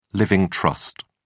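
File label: "living trust", British English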